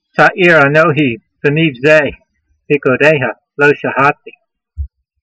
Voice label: voice, hebrew language; Psalm 119:141; tsa'eer a'nohee ve'neevzeyh, peekudeyha lo shahahtee